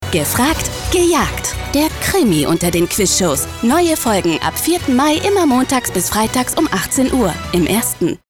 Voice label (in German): Funkspot; Gefragt Gejagt; LOFT VOICES